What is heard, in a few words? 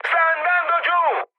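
Italian aircraft destroyed mood high, speech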